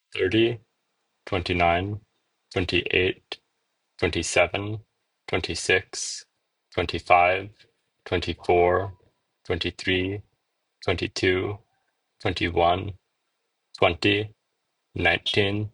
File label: countdown mono; MegaTTS3-Voice-Cloning